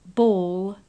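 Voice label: "ball", British English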